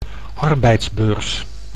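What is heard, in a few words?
"arbeidsbeurs", Dutch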